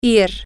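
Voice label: to go, spanish, female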